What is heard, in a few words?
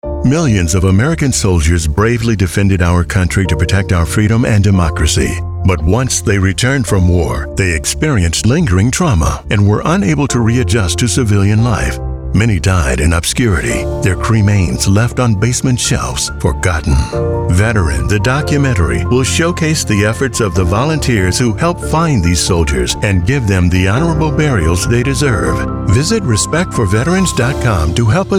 Veterans VO